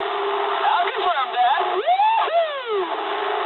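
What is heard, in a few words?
English player killed enemy mood high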